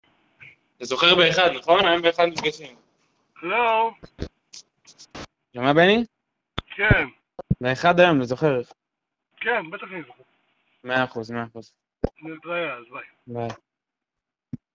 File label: Call recording